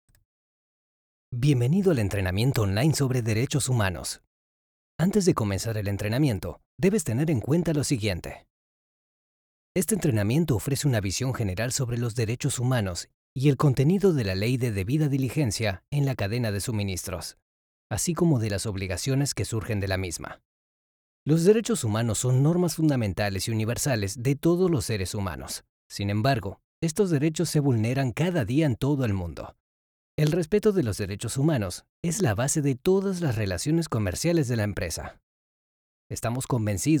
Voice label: E-LEARNING SPANISH, Spanish V.O